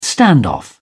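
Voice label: "standoff", British English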